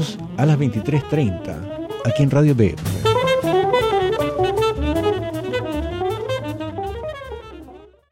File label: promo jazz